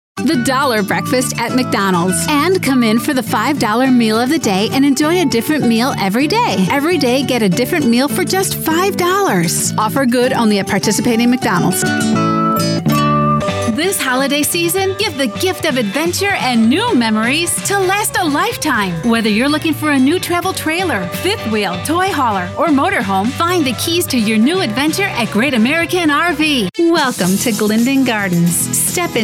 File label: Commercial